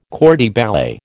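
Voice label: "corps de ballet", American English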